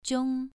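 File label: "jiong", Mandarin Chinese, second tone, pronunciation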